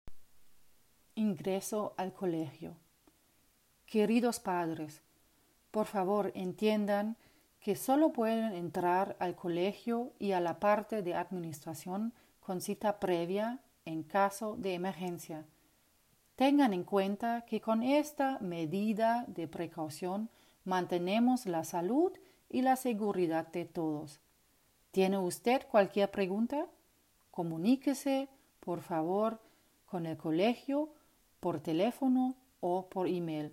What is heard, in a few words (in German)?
Spanisch